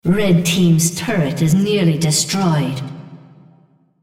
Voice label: female On Turret Almost Kill red

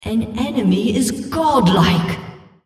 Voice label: female Killing Spree

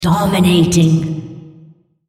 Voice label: female On Killing Spree

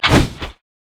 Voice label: battlecry; Xin Zhao

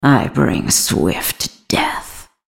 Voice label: attack, en US; Vayne

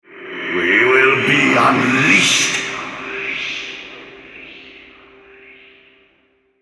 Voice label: Altar Capture, Male

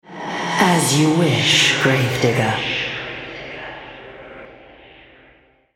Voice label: altar capture, female, en US; Yorick

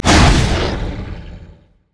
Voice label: wolfman infinite duress; LoL; warwick

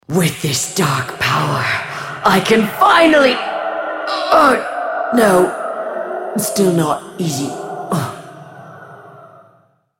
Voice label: joke, en US; BlackIceAnivia

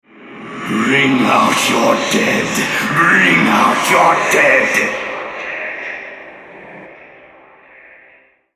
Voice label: Altar Capture, Male; Yorick; en US

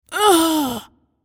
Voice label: dying, en US; Judicator; Character Voices - English

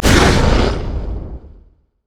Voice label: wolfman infinite duress; LoL; warwick

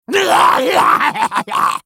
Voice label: spellcast effort; HyenaWarwick

